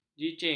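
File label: "jicing", Malay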